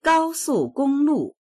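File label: "gao1su4gong1lu4", Mandarin Chinese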